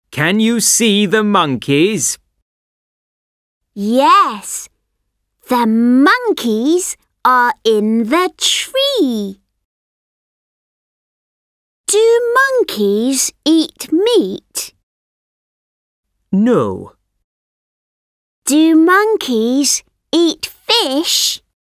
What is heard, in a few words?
At the Zoo British English; BrE; logos-english; oxford read and imagine